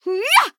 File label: Female Human Attack